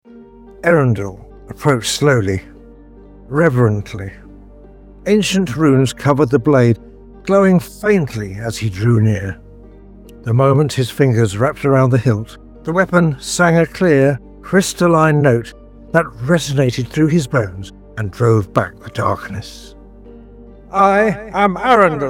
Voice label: Narration